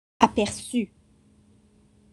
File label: "apercut", French